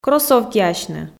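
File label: "кроссовкиасьны", Komi, female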